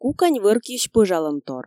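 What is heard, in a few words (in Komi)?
"кукань вӧркйысь пӧжалӧмтор", Komi, female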